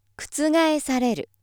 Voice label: female